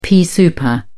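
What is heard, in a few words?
"pea souper", British English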